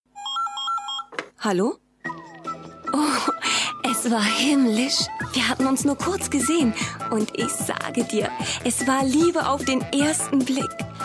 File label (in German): werbespot golf